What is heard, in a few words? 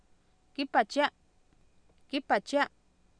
kIipatiA, Oapan minimal pairs female; Oapan minimal pairs 2000